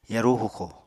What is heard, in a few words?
"yarú huxo", Apatani